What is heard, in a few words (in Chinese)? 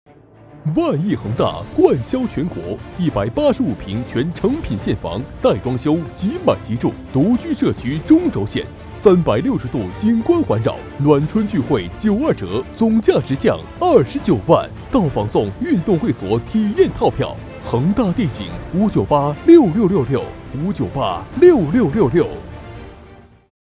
男,广告; 恒大帝景